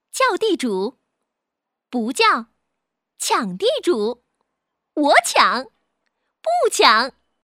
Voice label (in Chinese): 动画 游戏 斗地主女声